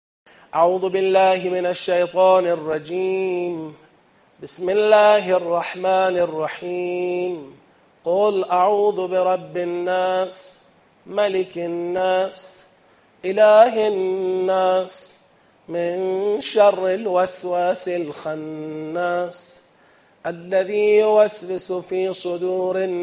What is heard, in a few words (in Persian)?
قرائت تدبری سوره ناس